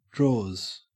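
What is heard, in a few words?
"drawers", Australian English; pronunciations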